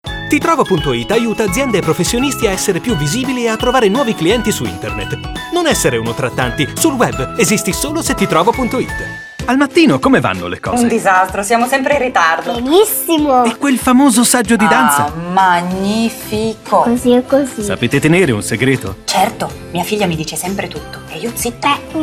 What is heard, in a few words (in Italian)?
spot